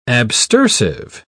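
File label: "abstersive", British English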